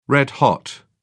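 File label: "red hot", British English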